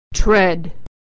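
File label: "tread", American English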